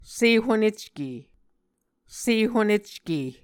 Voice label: "sehonecke", Muscogee